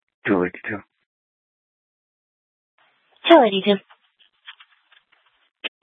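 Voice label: police dispatch, Scottsdale PD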